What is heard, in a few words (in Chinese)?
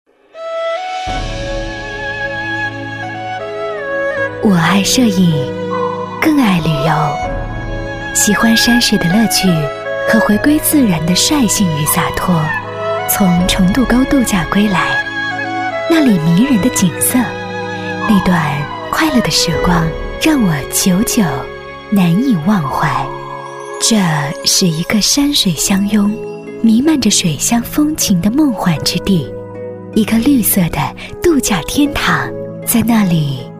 女, 宣传片配音, 深情演绎; 旅游度假山; 深情感人